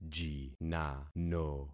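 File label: "jinano", concatenated syllables; Affixing experiment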